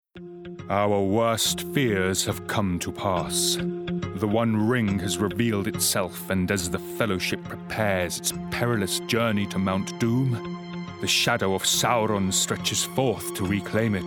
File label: Character